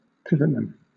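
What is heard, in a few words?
"pivotman", British English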